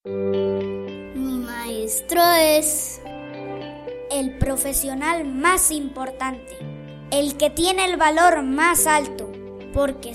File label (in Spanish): Spot Radio; Talento